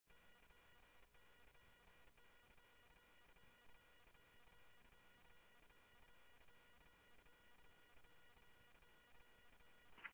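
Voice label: Mesa Police Department, scanner audio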